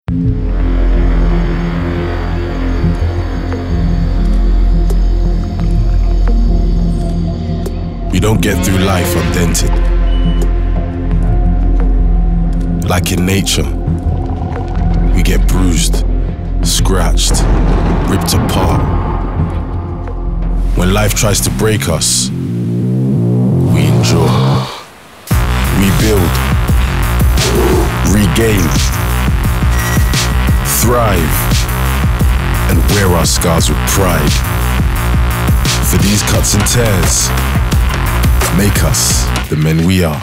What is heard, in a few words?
male german comercial; ideasonora